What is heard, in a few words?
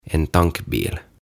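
"En tankbil", Swedish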